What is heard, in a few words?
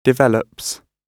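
"develops", British English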